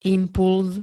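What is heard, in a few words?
"impulz", Slovak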